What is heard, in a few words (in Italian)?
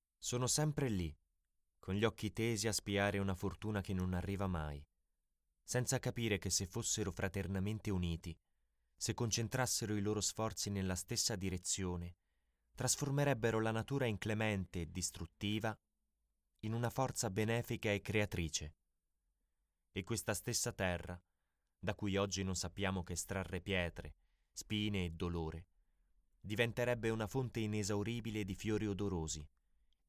documentario; ciudad lineal